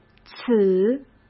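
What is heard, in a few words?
"此", Mandarin Chinese; hsk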